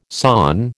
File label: "sawn", American